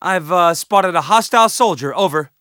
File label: spot; bf3